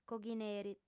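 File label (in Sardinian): "coghineri", female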